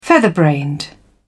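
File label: "featherbrained", British English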